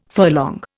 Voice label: "furlong", Indian

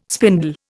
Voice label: "spindle", Indian

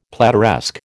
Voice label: "plateresque", American

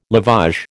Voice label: "lavage", American

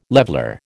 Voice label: "leveller", American

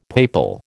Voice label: "papal", American

